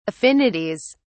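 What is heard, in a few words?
"affinities", English, uk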